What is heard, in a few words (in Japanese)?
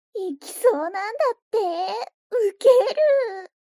mob 女子 台詞